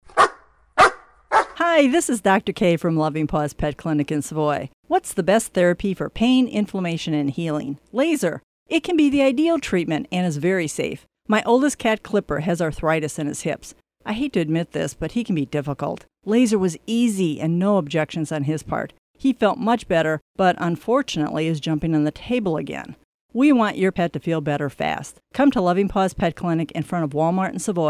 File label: AD